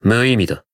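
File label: Voice growth, Japanese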